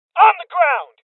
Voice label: Voices Order To Surrender Male Young